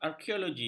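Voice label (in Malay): "arkeologi", Malay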